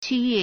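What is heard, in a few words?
"qi1yue4", Mandarin Chinese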